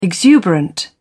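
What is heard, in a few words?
"exuberant", British English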